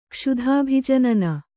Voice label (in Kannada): "ಕ್ಷುಧಾಭಿಜನನ", Kannada